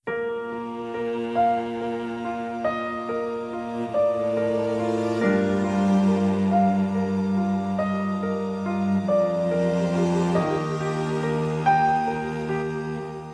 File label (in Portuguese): NEUTRA M; Telemensagens; Dia Dos namorados; masc; Telemensagens 2017